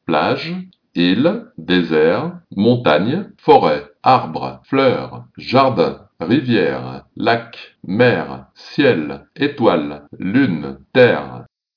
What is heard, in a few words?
french